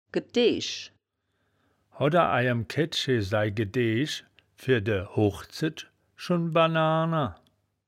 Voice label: "gedeesch", wittlich

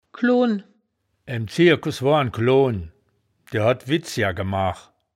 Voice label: "kloon", wittlich

